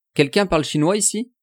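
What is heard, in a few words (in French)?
quelquun parle chinois ici, French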